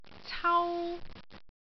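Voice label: "cau1", Cantonese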